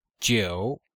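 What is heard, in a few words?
"jiu3", Chinese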